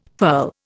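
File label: "furl", Indian English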